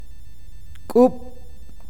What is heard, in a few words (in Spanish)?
"piedra", awakateko